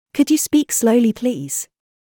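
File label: British Could you speak slowly please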